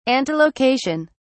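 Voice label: "antelocation", British English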